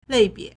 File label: "lei4bie2", Chinese